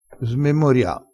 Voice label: "smemoria", crespinese

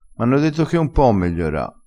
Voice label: "megliora", crespinese

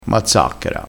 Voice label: "mazzacchera", crespinese

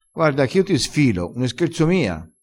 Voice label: "sfila", vocabolario dialettale

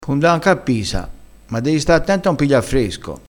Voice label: "dei", crespinese, vocabolario dialettale

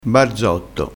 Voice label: "barzotto", crespinese

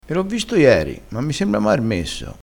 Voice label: "marmesso", crespinese, vocabolario dialettale

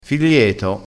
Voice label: "filieto", crespinese

